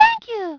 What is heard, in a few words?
"Thank you"; DS; NEWSMB; Last Koopa Demo